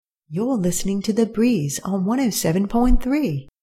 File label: radio imaging